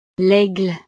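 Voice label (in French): "laigle", French; el águila; animales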